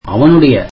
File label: tamil his